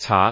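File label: "caa2", Cantonese; microsoft Wan Lung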